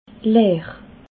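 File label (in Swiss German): "leer", Bas-Rhin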